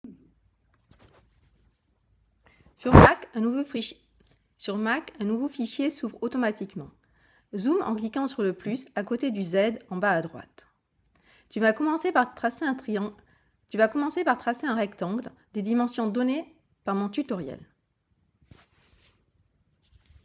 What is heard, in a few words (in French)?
Voix off